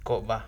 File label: Dosis, San Martin Peras, Piedra Azul